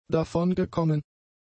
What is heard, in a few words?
"davongekommen", German; adjectives